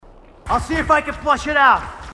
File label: marine timid; h2dialogue